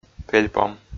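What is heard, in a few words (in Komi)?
"пельпом", Komi, male; audio dict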